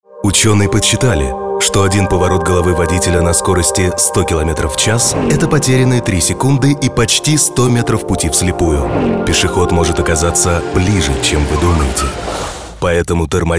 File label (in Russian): Аудиоролик Авторадио